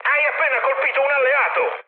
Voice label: player killed ally mood high, speech it

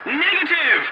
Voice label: voice message no, english; War Thunder

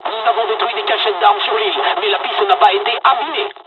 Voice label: dialog, dialogs french; midway; War Thunder

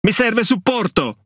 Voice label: It Speech Quick Commands Need Support Ship; World Of Warships

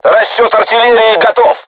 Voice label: voice message art charged, crew tanks russian

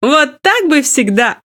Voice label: Speech Quick Commands Good Game

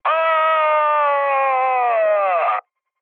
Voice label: damaged pilot, mood high, speech tur; War Thunder

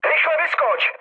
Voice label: bailout, speech cze, mood low; War Thunder

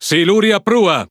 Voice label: Speech Alarms Torpedo Ahead, IT; World Of Warships

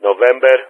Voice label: "November", player speech; Ka-50; Alphabet

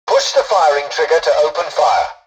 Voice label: tutorial dialogue, english; War Thunder

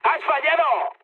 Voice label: bomb failure, mood high, speech; War Thunder

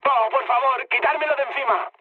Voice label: aircraft damaged, mood med, speech; War Thunder

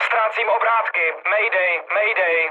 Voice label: damaged engine, speech cze, mood low; War Thunder

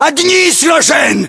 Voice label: inform killfirm infantry, battlechatter ru; localized english

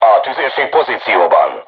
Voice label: voice message art charged, crew tanks hungarian

